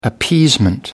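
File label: "appeasement", British English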